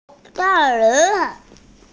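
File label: "da4lou2" Mandarin Chinese, female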